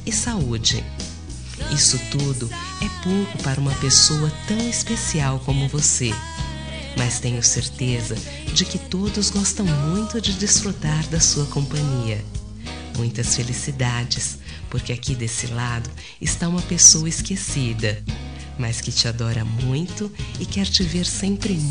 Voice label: atrasada fem, Aniversário Atrasado – Voz Feminina; Telemensagens para todas as ocasiões

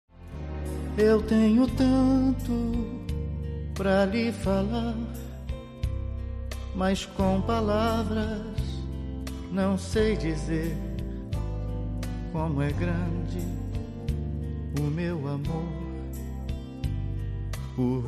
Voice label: Aniversário Madrinha – Voz Masculina

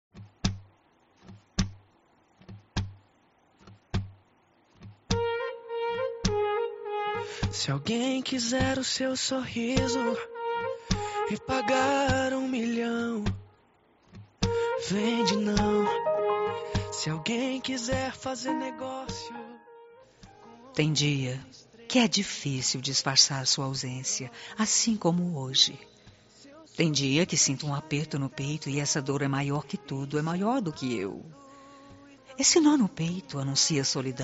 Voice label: saudades fem, Telemensagem de Saudades, Voz Feminina; Separados

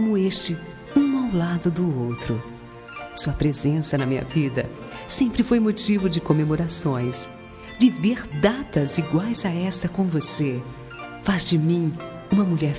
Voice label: natal romantico fem; natal romantico voz feminina

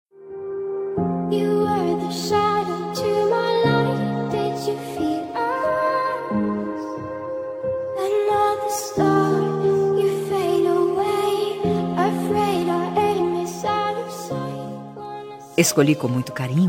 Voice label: dn paqyer fem, dia dos namorados conquista voz feminina

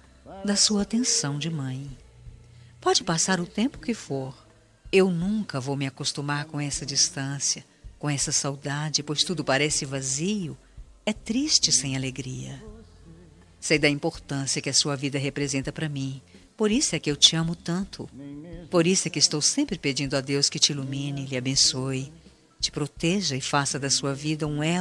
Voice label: dia das mães distante, voz feminina; dia das maes para mae distante voz feminina

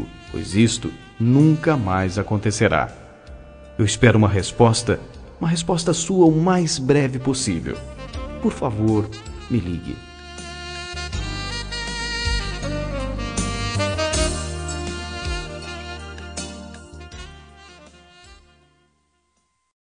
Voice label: desculpa geral masc, Telemensagem Desculpas – Voz Masculina

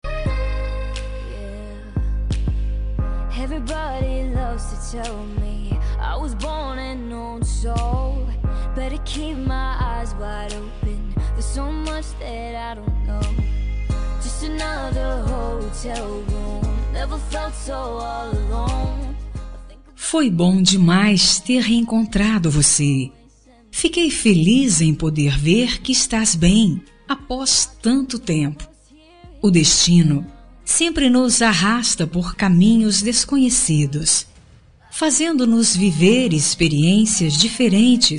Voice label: reencontro fem, Telemensagem Reencontro – Voz Feminina

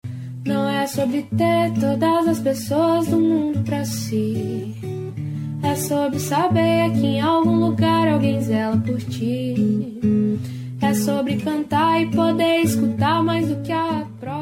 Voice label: fem plural, Voz Feminina; dia dos pais plural

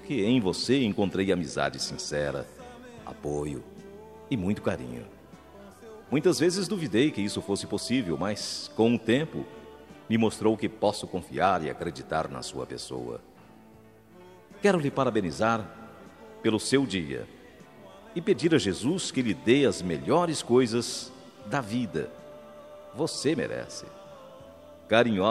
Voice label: dia do amigo masc amiga, Voz Masculina

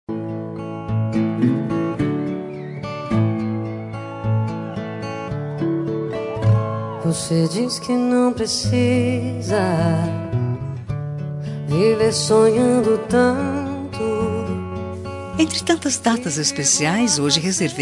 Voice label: tio fem, Voz Feminina; dia dos pais para tio voz feminina infantil

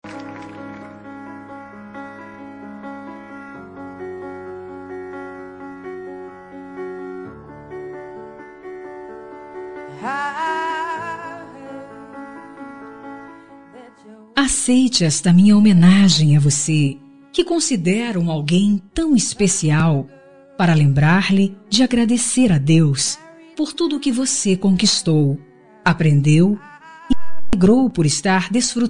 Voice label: carinho fem, Telemensagem Carinho – Voz Feminina

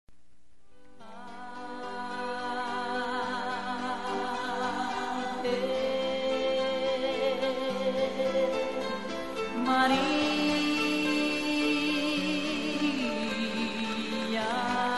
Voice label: natal mãe masculina, voz masculina

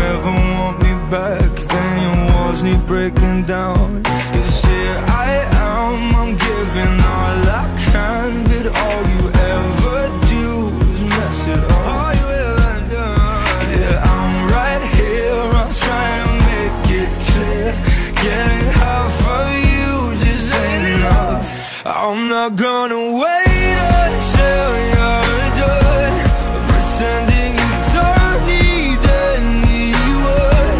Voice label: lgbt masc, Dia dos Namorados para Namorado LGBT – Voz Masculina

